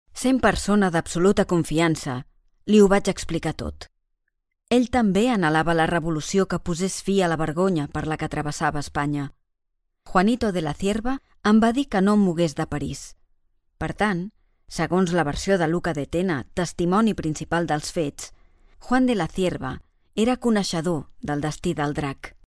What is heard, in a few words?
locutora catalana catalan voiceover